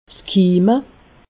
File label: "kimme", alsace